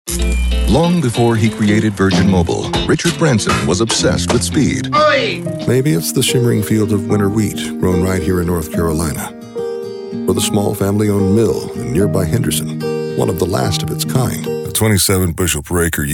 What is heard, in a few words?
commercial